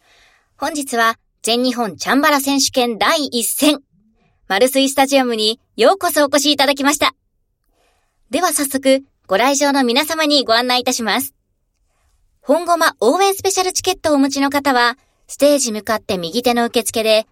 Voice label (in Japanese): イベントMC; ナレーター｜MC